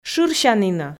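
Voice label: "шырсянінӧ", Komi, female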